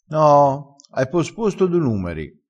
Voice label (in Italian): "picchia", crespinese